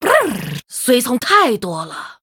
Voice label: Female Murloc; ErrorSpace; zh